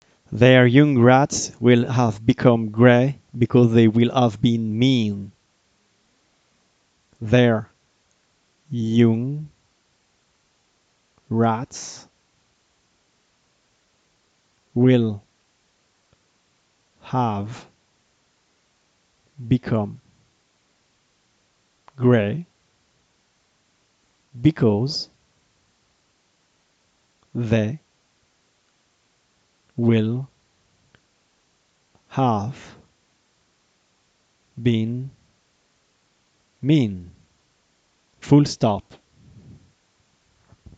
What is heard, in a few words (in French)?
dictee bilingue homophones grammaticaux; orthographe